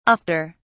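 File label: "ofter", British English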